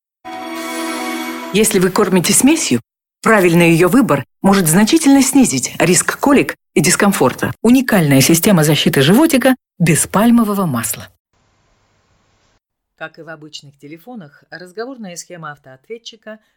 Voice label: Russian